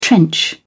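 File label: "trench", British English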